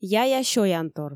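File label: "яя сёянтор", Komi, female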